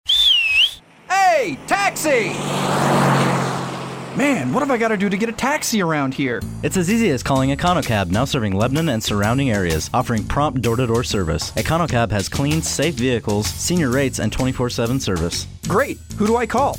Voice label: commercial; sales; econocab